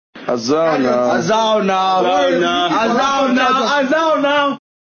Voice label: comedy meme